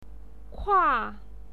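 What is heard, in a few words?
"kua", Mandarin Chinese, fourth tone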